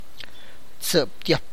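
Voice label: Tlacochahuaya Zapotec; twelve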